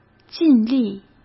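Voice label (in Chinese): "尽力", Mandarin; chinese-flashcards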